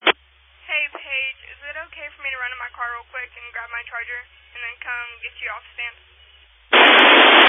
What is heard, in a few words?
Family Radio Service (FRS)